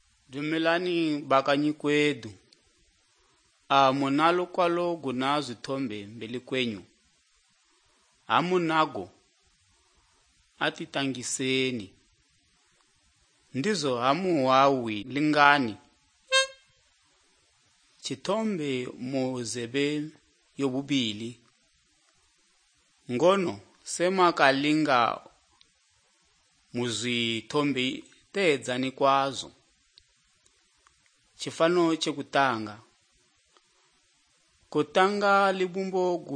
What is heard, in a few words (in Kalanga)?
good news kalanga lilima, drama